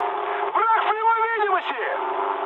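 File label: Russian enemy detected mood high, speech; War Thunder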